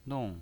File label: "nong4", pinyin